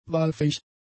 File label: "wahlfähig", German; adjectives